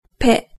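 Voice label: "pe", Japanese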